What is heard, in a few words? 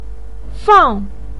"fang4", Chinese pinyin voice